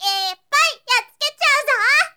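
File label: girl, "ippaiyattuke"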